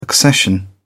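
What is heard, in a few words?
"accession", British English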